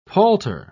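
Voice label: "palter", British English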